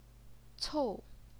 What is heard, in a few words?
"cou4", Chinese pinyin